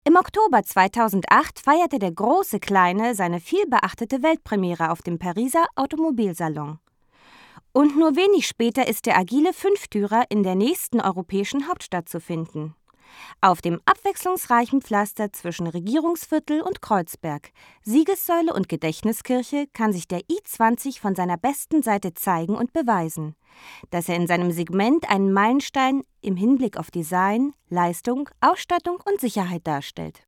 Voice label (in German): elearning, deutsch frauen; sprecher